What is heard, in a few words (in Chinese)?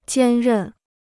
"兼任", Chinese